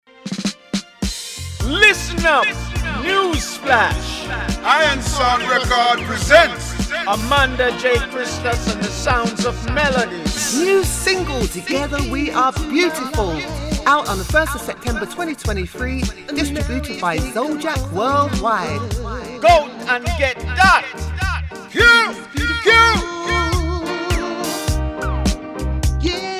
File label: advert